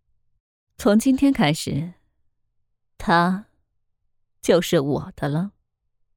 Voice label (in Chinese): 御姐音